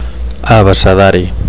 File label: "abecedari", Catalan